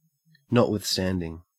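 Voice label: "notwithstanding", Australian English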